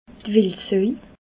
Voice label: "wildsoj", Alsace

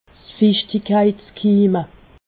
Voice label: "fichtigkeitskimme", alsace

